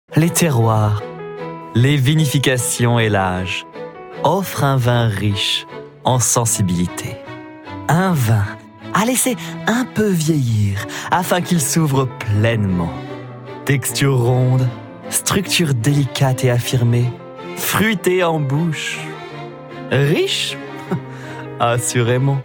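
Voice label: French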